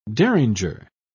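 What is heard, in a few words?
"derringer", British English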